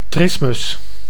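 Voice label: "trismus", Dutch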